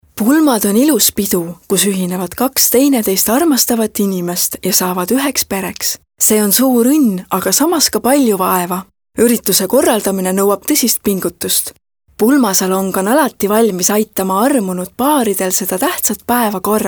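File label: Estonian female Inspiration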